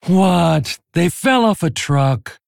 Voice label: Female Tauren